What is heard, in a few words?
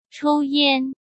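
"抽烟", Mandarin Chinese; chinese-flashcards; hsk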